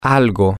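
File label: something, Spanish, male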